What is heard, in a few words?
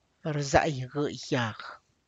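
tlacochahuaya, Zapotec; frostburnt leaves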